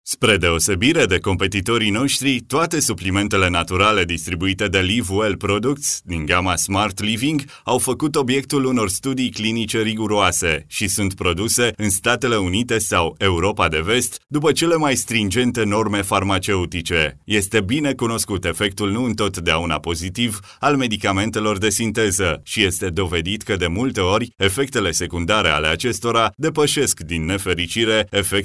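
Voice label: Romanian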